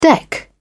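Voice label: "deck", British English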